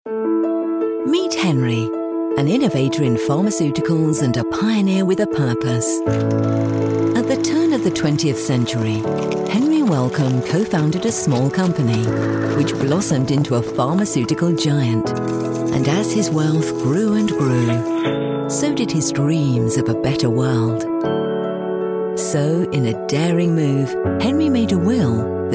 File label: explainer voiceover